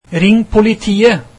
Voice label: Call the police, norwegian